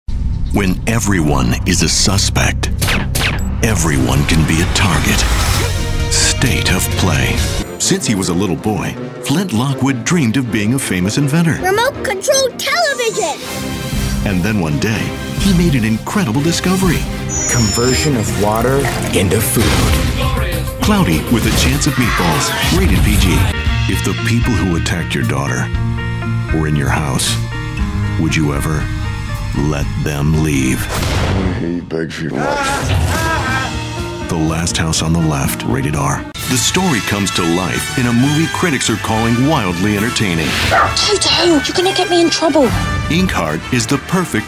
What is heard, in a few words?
Trailer